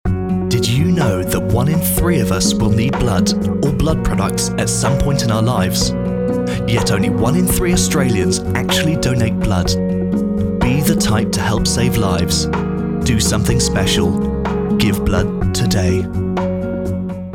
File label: male english give blood charity appeal